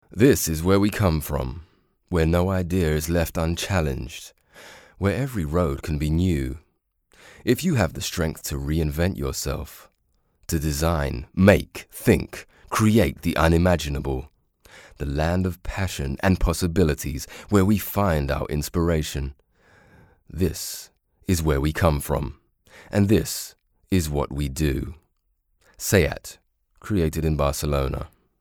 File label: male british english comercial; ideasonora